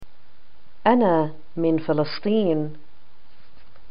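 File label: I am from, Palestinian arabic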